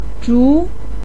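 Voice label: "zhu2", Mandarin pinyin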